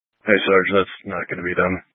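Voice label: Sheriff Dispatch, scanner audio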